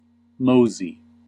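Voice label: "mosey", US English; pronunciations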